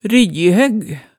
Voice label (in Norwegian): "ryggjihøgg", Hemsedal